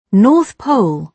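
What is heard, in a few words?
"north pole", British English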